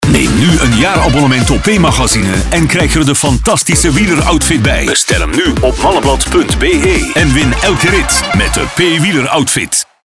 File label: commercial; p wieler outfit